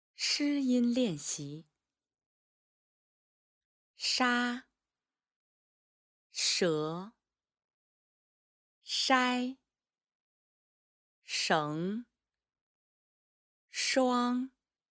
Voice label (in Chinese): 普通话课件